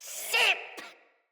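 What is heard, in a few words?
Attack Corrupted Sprouts, en; Dialog; Battle Barks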